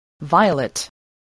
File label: "violet", English